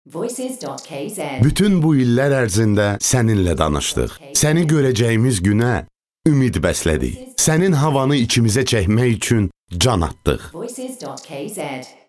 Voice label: Voice aze

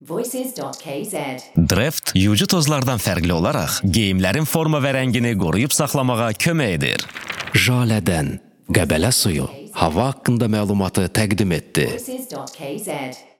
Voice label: Voice aze

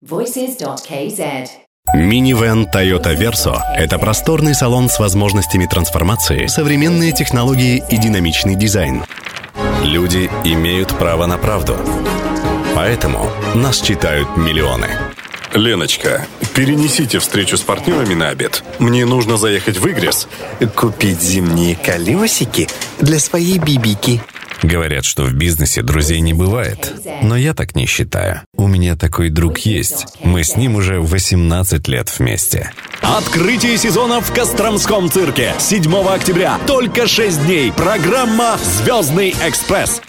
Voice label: ru

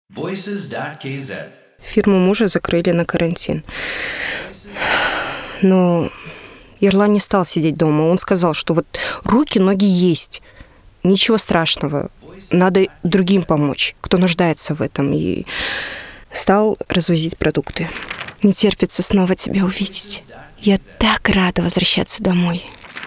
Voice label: ru

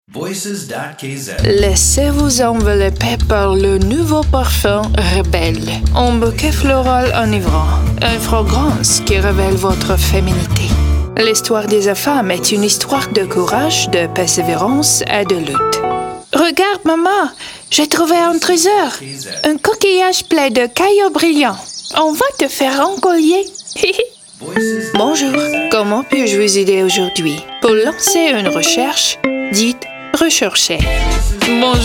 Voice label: fr

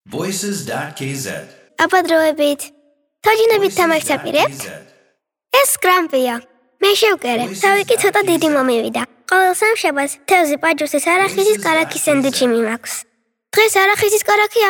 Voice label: kid girl

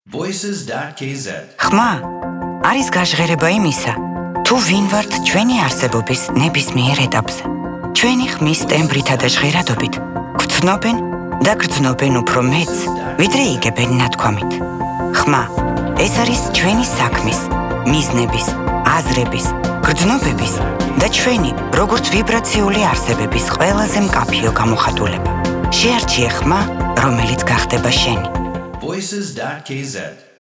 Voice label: woman

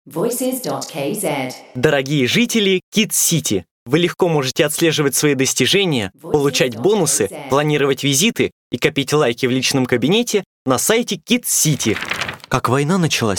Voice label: ru

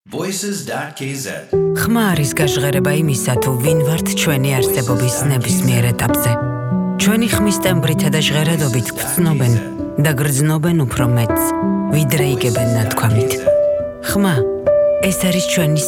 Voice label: woman

